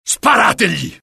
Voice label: alert, dialogue IT; mohaa; attack

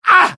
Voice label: death, UK; mohaa; dialogue

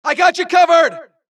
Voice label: supress, dialogue Allies; mohaa; Mission 2

